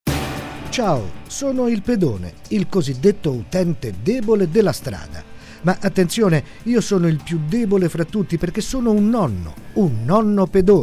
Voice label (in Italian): Spot, Isoradio; Nonno Pedone